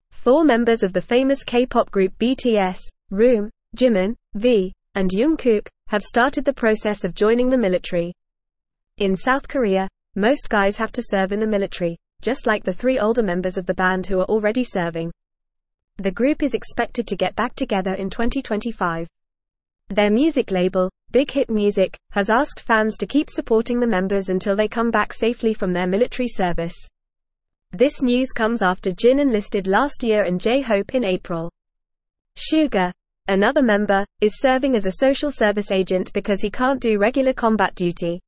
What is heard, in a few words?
Upper Intermediate FAST Reading, English; English Newsroom